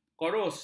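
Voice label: "korus", Malay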